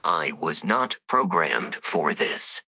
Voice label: upset; fertig classic games